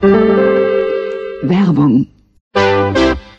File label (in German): werbung